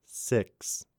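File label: "Six", male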